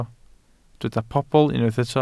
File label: "pobl", Welsh